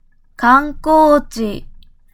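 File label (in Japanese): "観光地", Japanese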